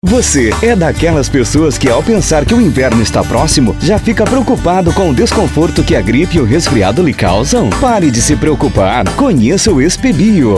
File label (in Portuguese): Spot; Expebio; Biofhitus